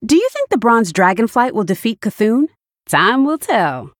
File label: Female Human; Bacon Shop Bob